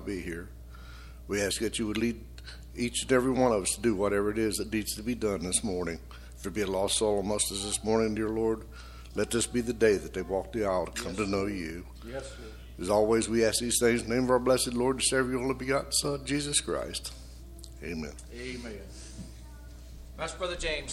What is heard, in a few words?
Broadcast Prayer